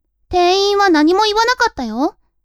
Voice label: women